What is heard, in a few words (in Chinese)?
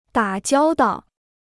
"打交道", Chinese